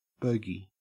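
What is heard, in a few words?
"bogie", Australian English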